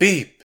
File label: Voices urgent; gamerip; the looker